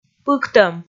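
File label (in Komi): "пыктӧм", Komi, female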